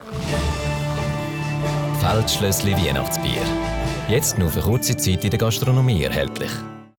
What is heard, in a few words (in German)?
Werbung SCHWEIZ; feldschloesschen